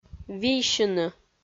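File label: "вийсьыны", Komi, female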